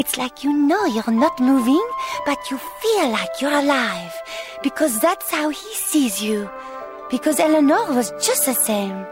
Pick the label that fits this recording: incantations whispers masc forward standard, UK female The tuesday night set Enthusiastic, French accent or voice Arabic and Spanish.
French accent